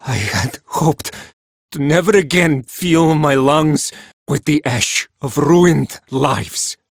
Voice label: Villagers Male, Cetus Invasion